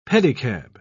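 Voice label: "pedicab", English, British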